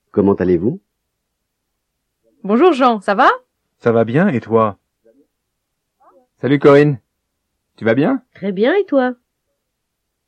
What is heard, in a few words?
French